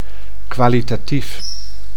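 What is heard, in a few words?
"kwalitatief", Dutch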